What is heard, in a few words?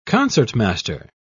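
"concertmaster", British English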